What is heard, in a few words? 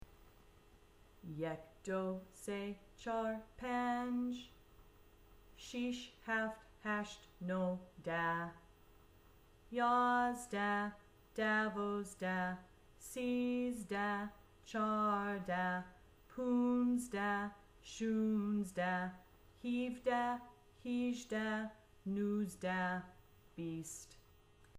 numbers slower